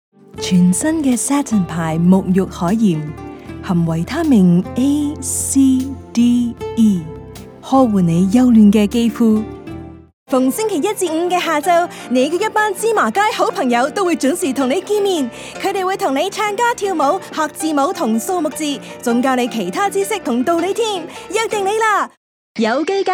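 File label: Canto Tvc, Cantonese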